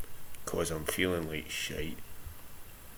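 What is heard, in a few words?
"cos", Scottish slang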